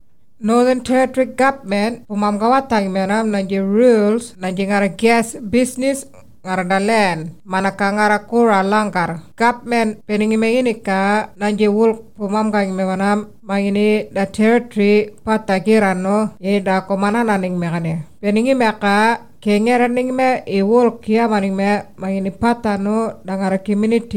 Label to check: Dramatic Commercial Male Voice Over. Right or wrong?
Wrong